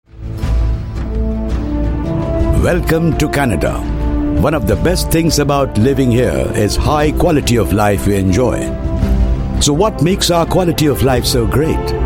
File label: English Indian; Male